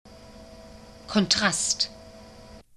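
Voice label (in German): "kontrast", German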